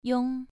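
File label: "yong", Mandarin Chinese, second tone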